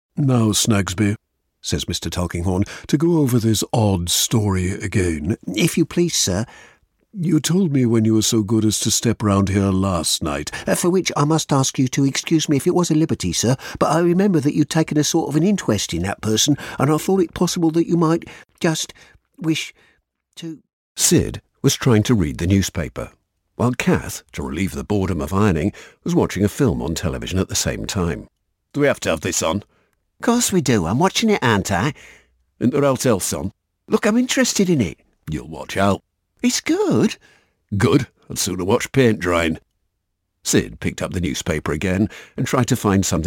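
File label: audiobook, Warm Natural Voice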